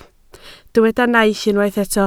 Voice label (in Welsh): "naill", Welsh